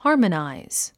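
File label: "harmonize", English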